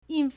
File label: "yin4", Chinese